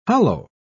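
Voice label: "holloa", British English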